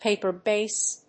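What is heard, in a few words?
"paper-base", English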